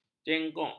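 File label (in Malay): "jenguk", Malay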